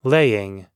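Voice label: "laying", American English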